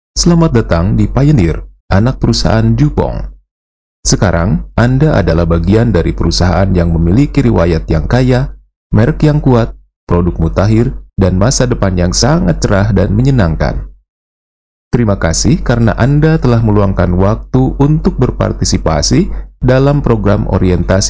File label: Indonesian